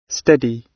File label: "steady", gb